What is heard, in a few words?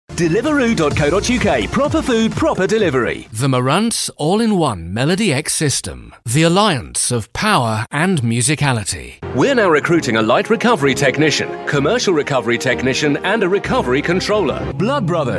radio ads